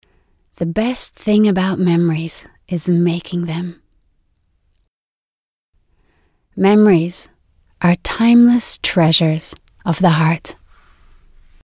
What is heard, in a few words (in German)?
Stimme trocken